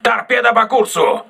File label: voice message torpedo, crew ships russian